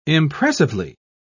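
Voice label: "impressively", British English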